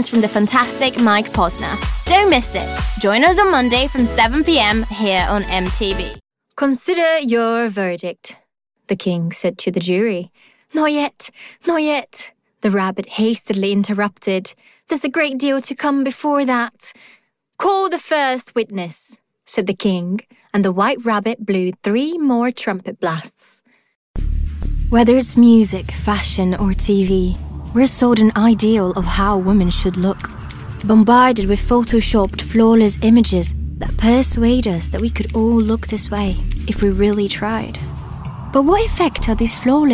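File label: English, Portuguese-Brazilian, Female, Home Studio, Teens-30s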